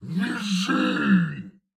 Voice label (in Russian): "Визжи!", Фраза; Турбосвин